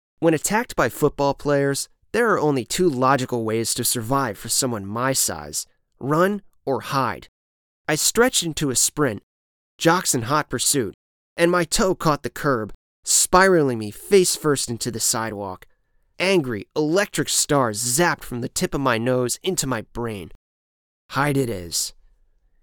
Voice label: Audiobook